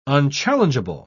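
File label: "unchallengeable", British English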